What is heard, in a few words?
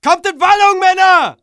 ger go go go, german